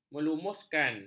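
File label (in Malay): "melumuskan", Malay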